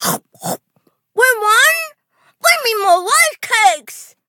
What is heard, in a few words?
victory, en; cookie run